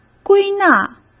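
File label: "归纳", Mandarin Chinese; chinese flashcards; hsk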